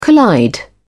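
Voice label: "collide", British English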